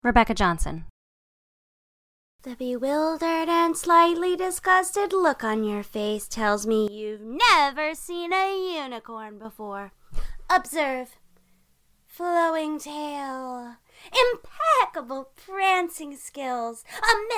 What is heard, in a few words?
animation; Innovative LA